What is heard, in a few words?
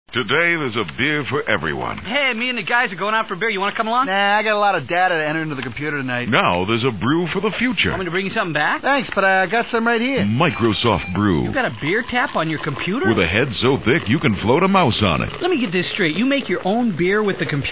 Commercials winbeer